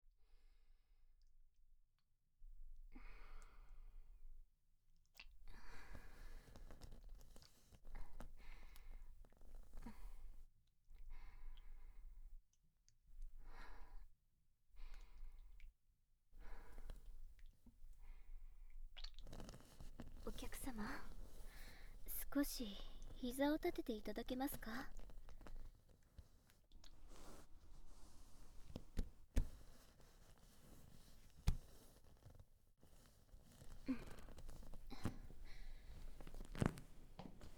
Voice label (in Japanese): 背面マッサージ (BGMなし), ASMR